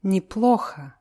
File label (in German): "neplocho", russische